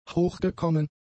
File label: "hochgekommen", German; adjectives